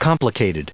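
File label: "complicated", US English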